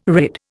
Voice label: "rid", Indian